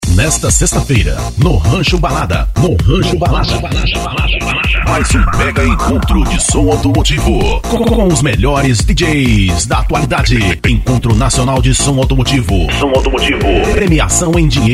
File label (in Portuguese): locutor som auto motivo spot